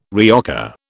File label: "reoccur", British